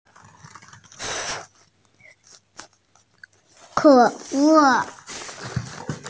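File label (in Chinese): "ke3le4", Mandarin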